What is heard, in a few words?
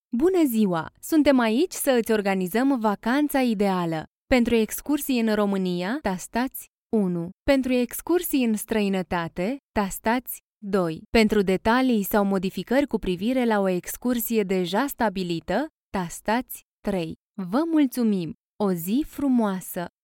romanian ivr